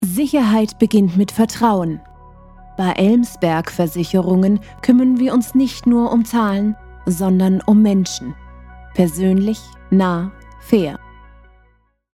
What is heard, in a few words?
commercial versicherungen